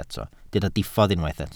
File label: "diffodd", Welsh